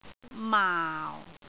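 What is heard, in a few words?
"maau4", Cantonese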